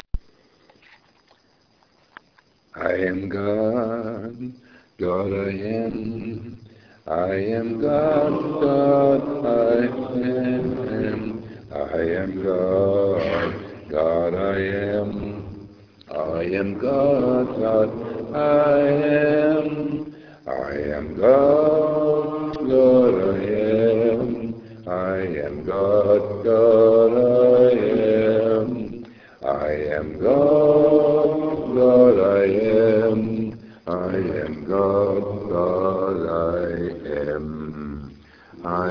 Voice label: Seminar Chant I am god; Euro-Nig 10,000 Brain Stem Cell